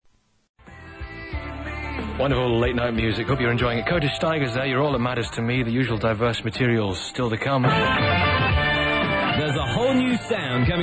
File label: 1fm trailer; 1fm